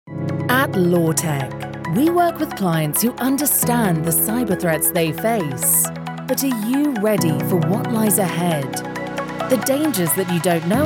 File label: corporate